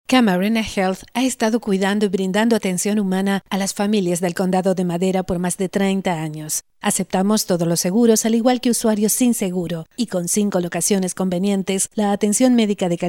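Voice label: spanish latin american female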